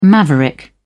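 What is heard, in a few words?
"maverick", British English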